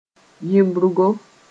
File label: "yɩʋbrgo", Mooré; vulture